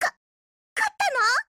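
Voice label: WIN, Japanese; TFQ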